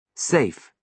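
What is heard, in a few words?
"safe", American English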